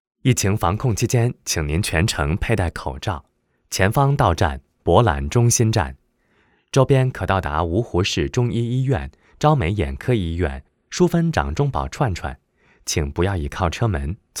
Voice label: 男 中文 地铁报站

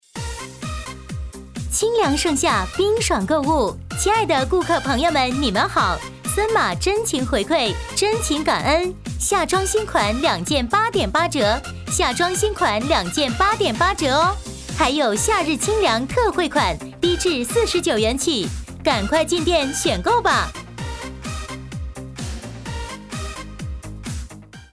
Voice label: 女 促销 夏装; 森马

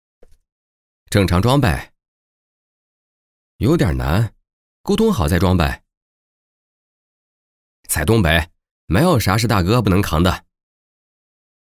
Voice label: 动画角色B和角色C; 吉林电信市场部fttr

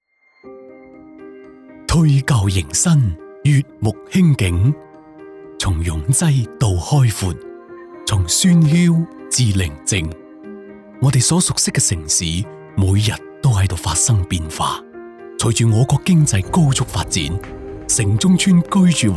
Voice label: 粤男-粤语; 石壁村

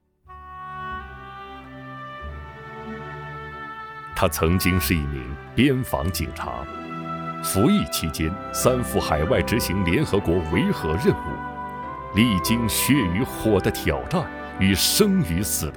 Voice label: 男 人物专题; 百名党员讲税收故事

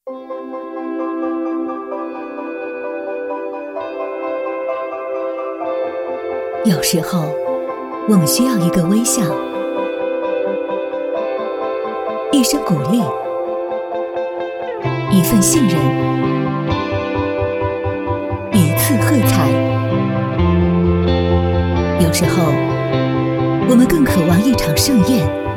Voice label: 女 品牌形象片; 江淮IEVA50

